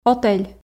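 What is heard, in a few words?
"отель", Komi, female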